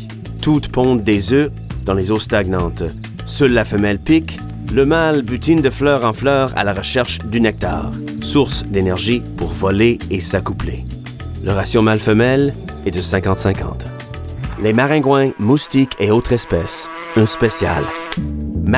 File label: NARRATION